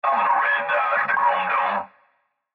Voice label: Cb Trucker Radio; Radio Voice; Blastwave

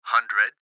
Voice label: Gps Voice, Male

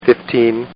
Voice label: Man Says, Talking; Voice; Partners In Rhyme

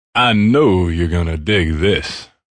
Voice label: Know Dig This, Male; Radio; VoiceBox

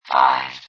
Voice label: Robot Voice "0"

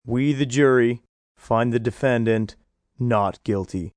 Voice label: Find Not Guilty, Courtroom, male, jury; Talking Sound Effects; Sound Effects:Voice; VoiceBox

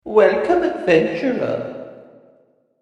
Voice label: Welcome Adventurer Dungeon Master Voice; Talking Sound Effects; fantasy

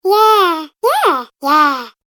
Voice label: Yeahs Collection Kids Cartoon Game Voice; Recorded Voice Sound Effects

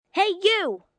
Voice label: Hey You, Calls, 1 child, 11 yrs.; Talking

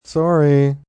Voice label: Sorry M, male; Etiquette

